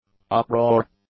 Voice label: "uproar", English; nouns